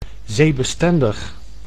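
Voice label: "zeebestendig", Dutch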